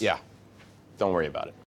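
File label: apologizing, American English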